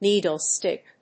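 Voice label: "needle-stick", English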